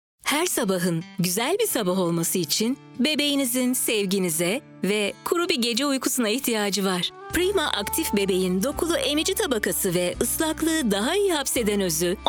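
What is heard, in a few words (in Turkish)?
sicak sakin, seslendirme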